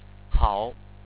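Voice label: "hao3", Chinese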